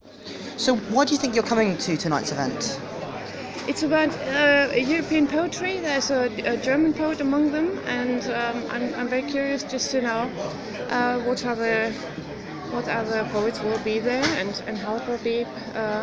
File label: vox pop Winchester